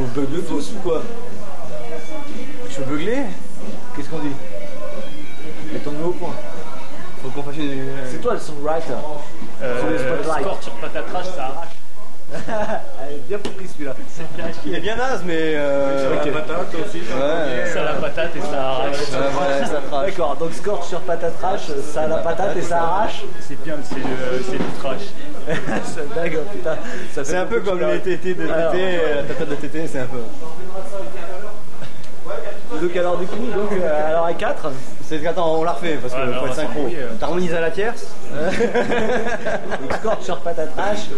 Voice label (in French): betisier jingle